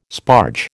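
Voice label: "sparge", American English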